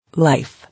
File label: "Life", English